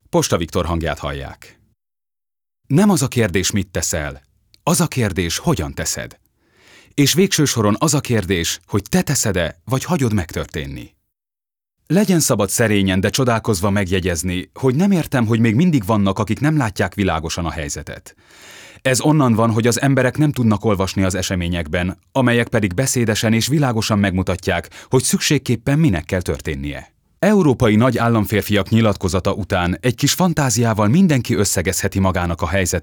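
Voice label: hungarian male narration; voice demos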